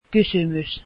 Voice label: question, finnish